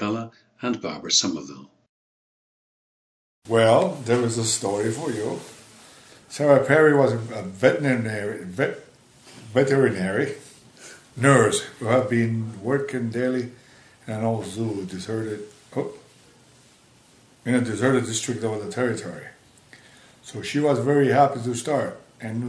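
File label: italy, International Dialects of English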